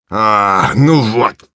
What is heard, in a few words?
negative vocalization, ru; Engineer